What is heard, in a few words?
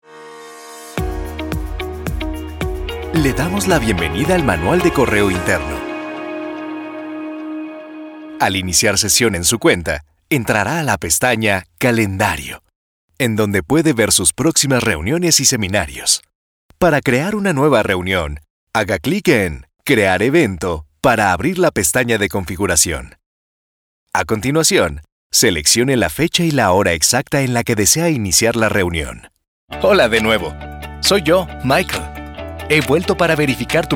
E LEARNING